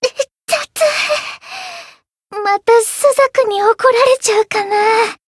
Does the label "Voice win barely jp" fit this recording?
Yes